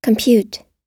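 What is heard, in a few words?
"compute", American English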